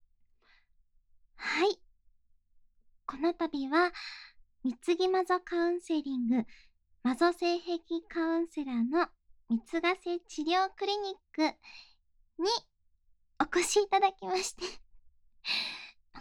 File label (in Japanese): フリートーク, ASMR; 逢坂成美さまより